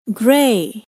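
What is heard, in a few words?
"grey", English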